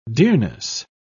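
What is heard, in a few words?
"dearness", British English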